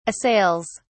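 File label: "assails", British English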